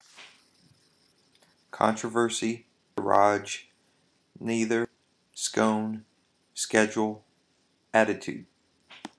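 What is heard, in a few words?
sacramento ca male, accent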